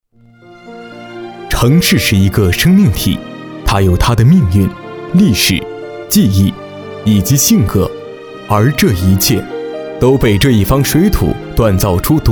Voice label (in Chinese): 专题 纪录片古村落旁白